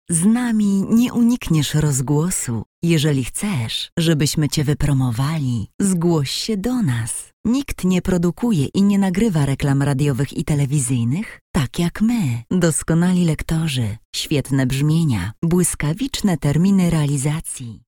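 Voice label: Polish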